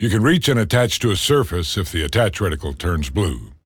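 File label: tutorial new; Bionic Commando 2009 PC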